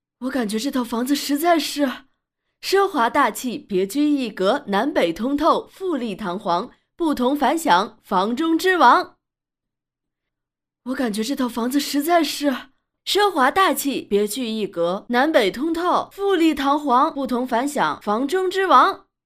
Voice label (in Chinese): 明星模仿; 孙俪